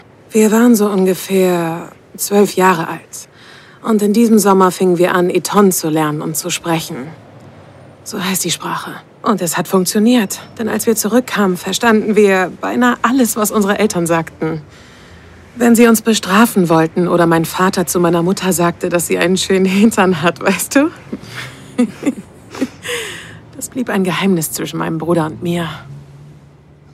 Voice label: Synchron

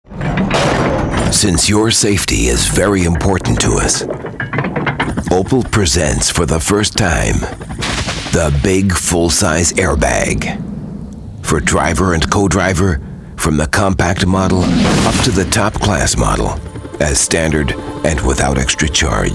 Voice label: us englisch

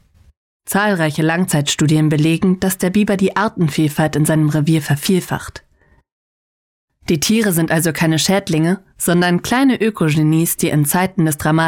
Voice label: Dokumentation; Der Biber

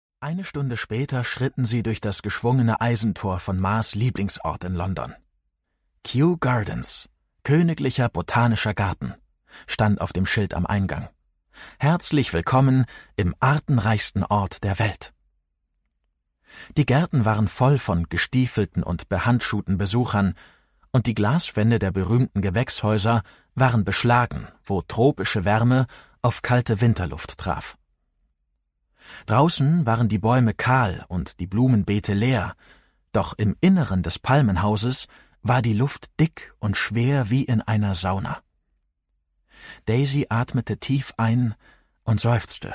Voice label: Kinderhoerbuch, Audiobook (Hörbuch), German; Greenwild

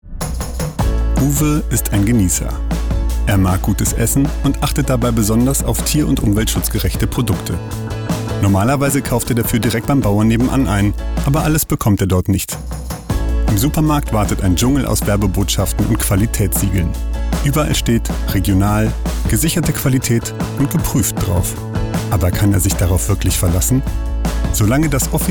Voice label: Sprachprobe Erklaerfilm; LOFT VOICES

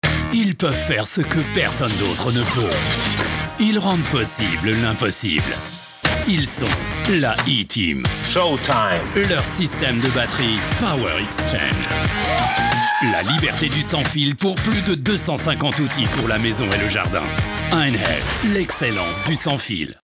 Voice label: TV Spot; E-Team mit Oliver Kahn

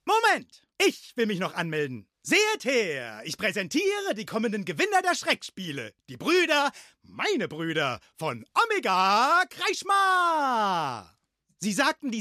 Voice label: Synchron Animation

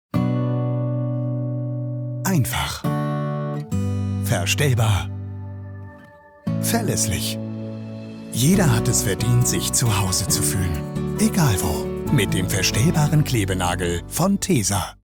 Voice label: TV Werbung; Klebenagel; tesa®

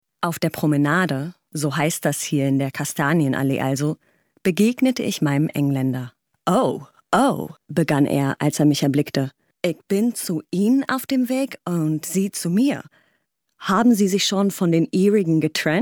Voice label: Hoerbuch DEU

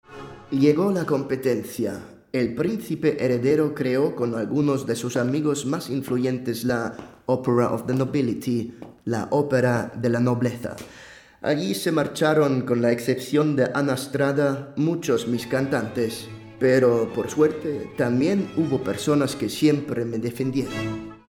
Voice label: Audioguide; Händelhaus

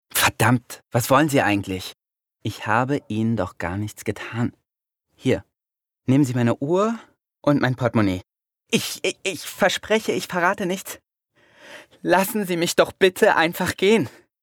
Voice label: synchron ängstlich; LOFT VOICES

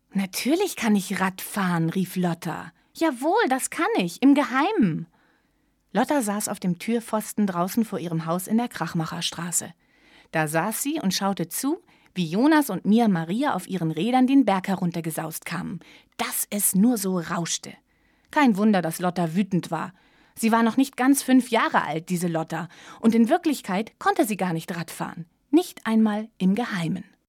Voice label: erzaehlung

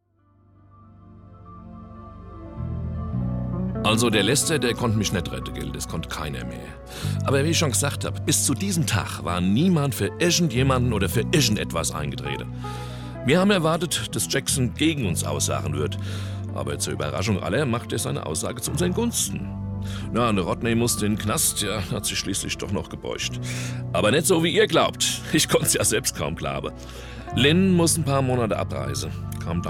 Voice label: dialekt

